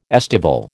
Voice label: "estival", American